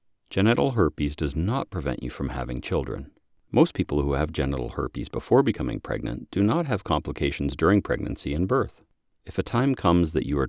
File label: Medical Read